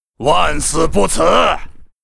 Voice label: what, zh; Gryphon rider

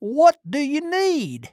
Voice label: trader male neutral greeting

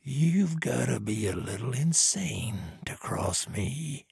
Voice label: trader male neutral quest declined

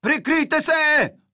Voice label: neutral

